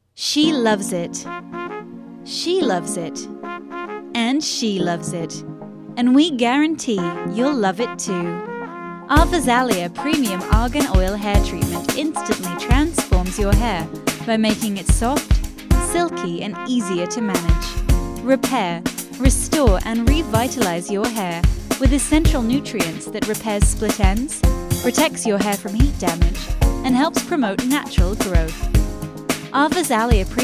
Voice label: explainer

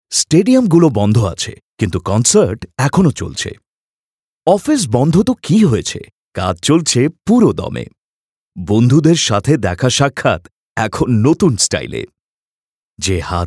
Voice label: BENGALI Adfilm VO; Sonata

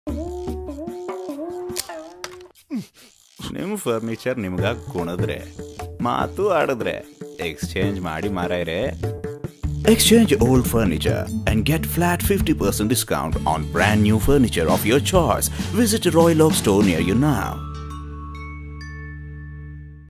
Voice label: Kannada TV ad

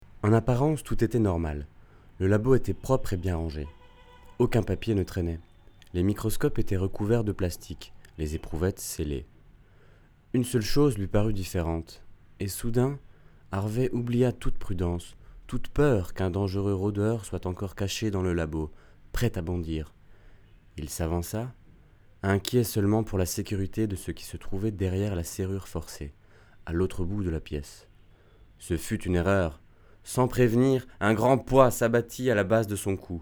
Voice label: narration french

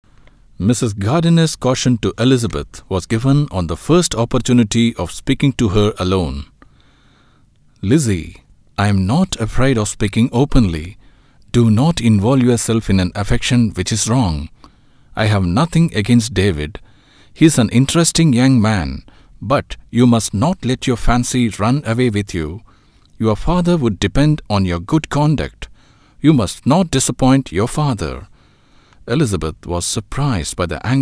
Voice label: ENGLISH AUDIOBOOK

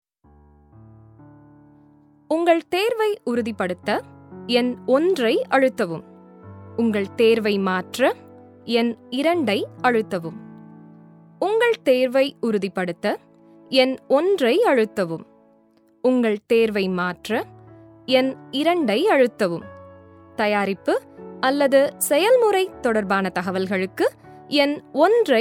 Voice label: IVR Tamil

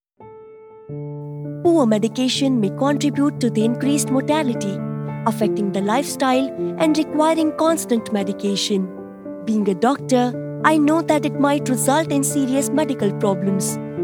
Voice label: Eng; EveBottle

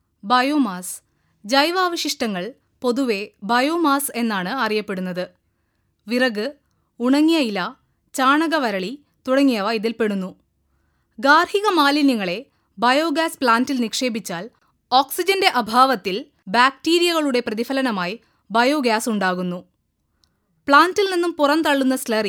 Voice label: narration malayalam

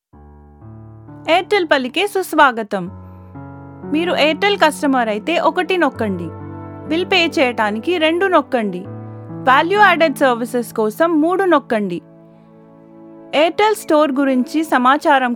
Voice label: IVR Telugu

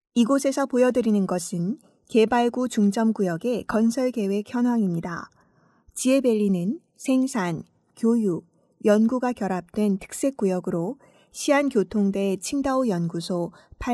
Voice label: FEMALE KOREAN

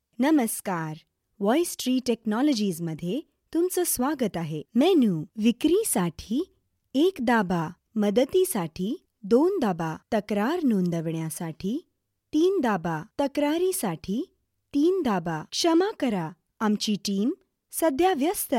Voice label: Marathi IVR

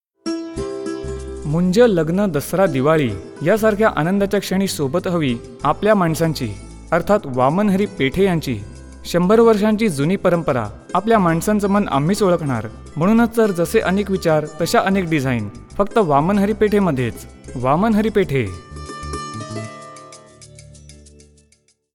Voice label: promo, Marathi

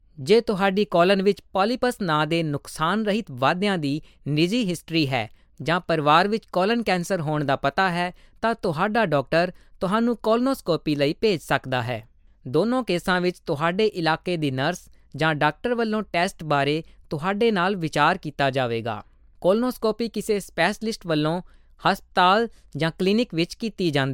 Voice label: medical, punjabi

